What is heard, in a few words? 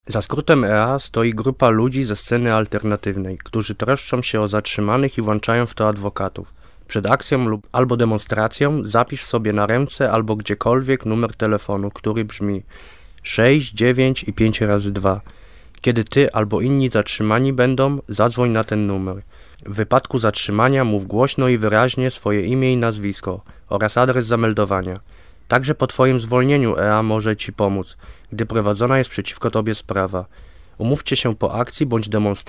jingle polnisch